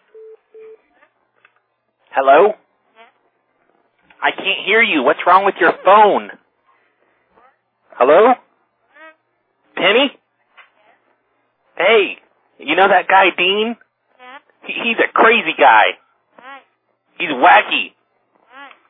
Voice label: jackalope call, Prank Calls; Phone Losers of America Media Pack 2014; Miscellaneous Pranks